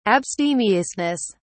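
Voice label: "abstemiousness", British English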